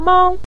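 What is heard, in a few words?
"mang", Mandarin Chinese neutral tone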